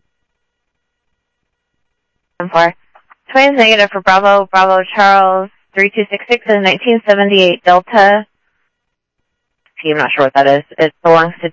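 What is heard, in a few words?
Sheriff East County, scanner audio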